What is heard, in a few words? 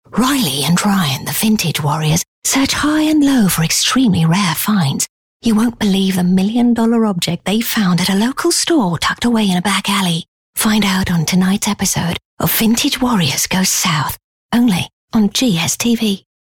English Skill Promo; OSM Talent